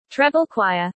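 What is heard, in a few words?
"treble choir", English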